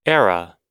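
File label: "era", English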